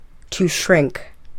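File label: "to shrink", English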